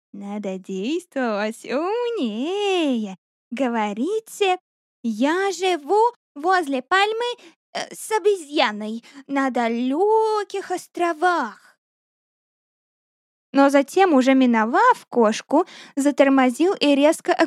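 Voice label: Russian, general; GoLocalise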